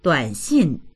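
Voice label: "duan3xin4", Mandarin Chinese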